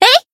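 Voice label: attack, Japanese; ewella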